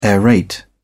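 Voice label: "aerate", British English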